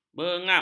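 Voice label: "bengap", Malay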